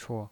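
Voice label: "chuo", Mandarin Chinese pinyin voice, neutral tone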